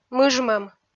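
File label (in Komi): "мыжмӧм", Komi, female; kpv audio dict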